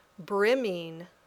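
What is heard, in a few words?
"brimming", English word; The City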